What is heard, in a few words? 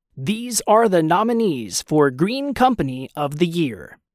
live announce